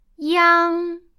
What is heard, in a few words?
"iang", Chinese